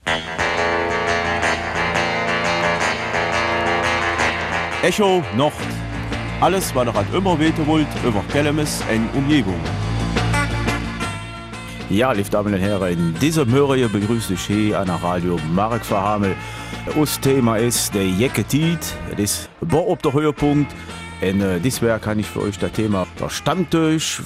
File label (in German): Kelmiser Mundart; Der Ex-Prinzen Stammtisch Kenehemo